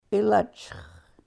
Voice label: "ilachx", sahaptin